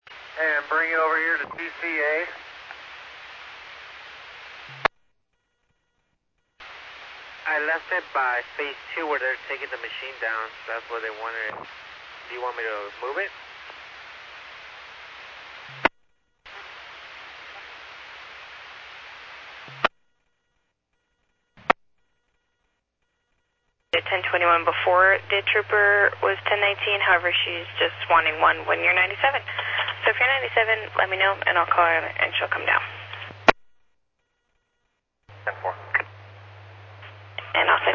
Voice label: Medevac, scanner; NativeAirAmb

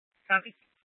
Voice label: Mesa PD Central Dispatch, scanner; Mesa Simulcast

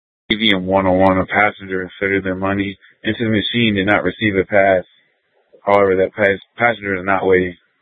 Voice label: Public Transit System Light Rail Ops, scanner

